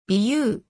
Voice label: "びゅ", 日本語; 基礎日語五十音聽力

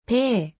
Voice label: "ぺ", 日本語; 基礎日語五十音聽力

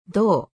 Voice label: "ど", 日本語; 基礎日語五十音聽力

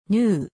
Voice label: "ぬ", 日本語; 基礎日語五十音聽力

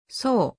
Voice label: "そ", 日本語; 基礎日語五十音聽力

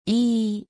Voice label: "い", 日本語; 基礎日語五十音聽力

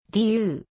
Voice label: "りゅ", 日本語; 基礎日語五十音聽力

